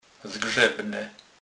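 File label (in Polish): "zgrzebne", Kurpie dialect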